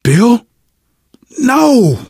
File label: grief, survivor voice; left4dead; manager; vet; Source engine